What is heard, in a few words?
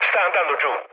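Italian aircraft destroyed mood low, speech; War Thunder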